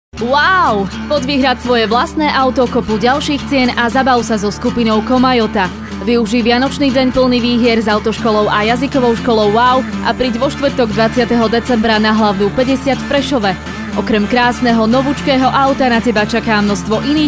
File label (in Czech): reklamni spot, vicnezsezda; vavautoskola